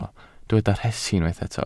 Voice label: "rhesi", Welsh

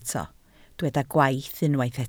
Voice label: "gwaith", Welsh

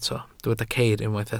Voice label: "ceir", Welsh

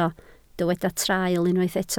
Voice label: "traul", Welsh

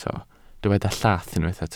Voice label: "llath", Welsh

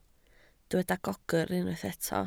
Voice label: "gogr", Welsh

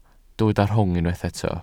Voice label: "rhwng", Welsh

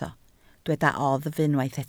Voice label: "oddf" Welsh

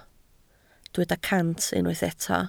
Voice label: "cant", Welsh

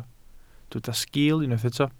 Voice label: "sgil", Welsh

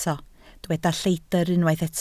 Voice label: "lleidr", Welsh

